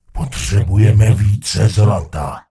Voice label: Gnome Male err not enough money; wowpreklad; czwow; GnomeMaleErrorMessages